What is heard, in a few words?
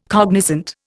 "cognizant", Indian